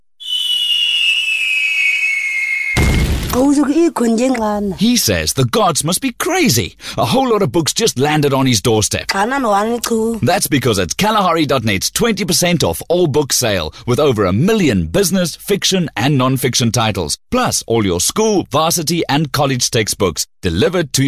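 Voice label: South African English, male, commercial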